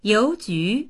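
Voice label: "you2ju2", Mandarin Chinese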